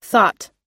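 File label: "thought", US English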